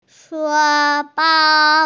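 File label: "shu1bao1", Mandarin Chinese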